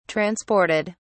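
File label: "transported", British English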